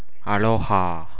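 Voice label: "aloha", Japanese, male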